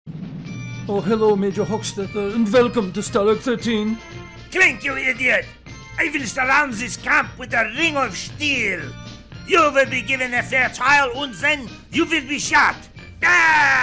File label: Voice with Music, voiceover; From Hogans Heros: Maj. Wolfgang Hochstetter